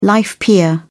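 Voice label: "life peer", British English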